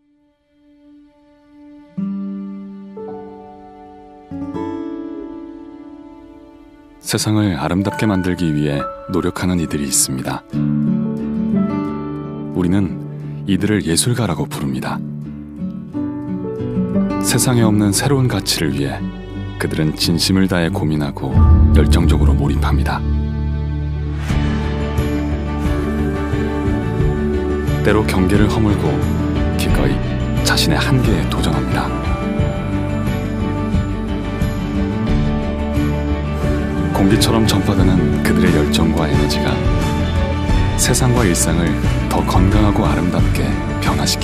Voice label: Narration

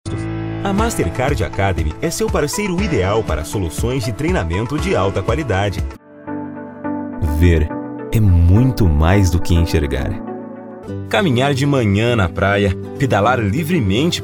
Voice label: Commercial

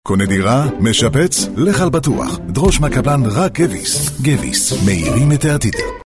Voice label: Commercial

